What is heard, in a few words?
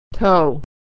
"toe", pronunciation